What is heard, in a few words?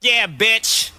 yeah bitch male, expletives; Voice